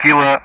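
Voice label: Speech Sound Russian Common Player Alphabet; Kilo-continue; DCS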